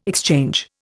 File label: "exchange", Indian